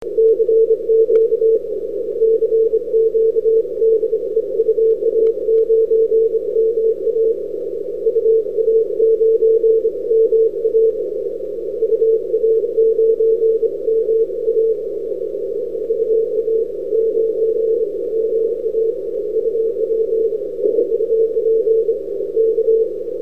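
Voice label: CQ, 160m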